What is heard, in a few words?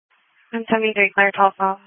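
Police Patrol (Dispatch)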